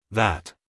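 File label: "that", American English, male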